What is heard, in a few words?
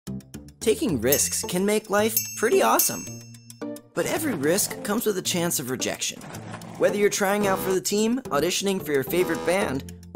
PSA